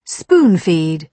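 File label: "spoon-feed", British English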